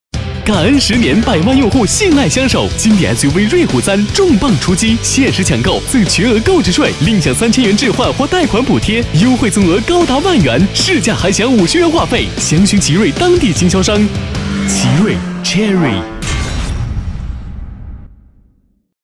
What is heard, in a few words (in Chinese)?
男 大音 广告; 奇瑞感恩回馈